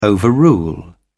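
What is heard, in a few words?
"overrule", English, British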